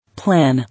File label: "Plan", English